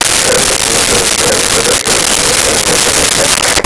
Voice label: Walkie Talkie Chatter; Communications